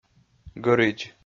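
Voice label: "гӧридз", Komi, male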